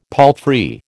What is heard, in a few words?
"palfrey", American